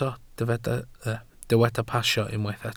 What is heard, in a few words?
"pasio", Welsh